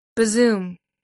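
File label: "bazoom", English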